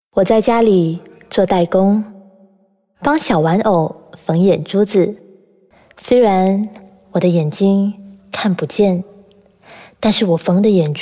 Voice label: Warm Voice